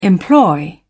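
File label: "employ", British English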